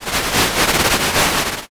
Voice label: whisper